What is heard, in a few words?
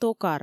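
"токар", Komi, female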